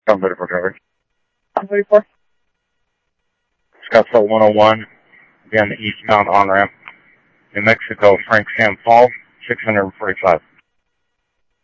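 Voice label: police department, scanner audio; ThompsonPeakHigh; SCTSDLE PD - PD2; ScottsdalePolice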